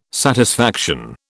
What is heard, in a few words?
"satisfaction", British